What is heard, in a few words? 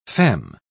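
"feme", British English, dictionary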